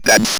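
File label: ROBVOX, robot voice; E-Lab; X Static Goldmine 1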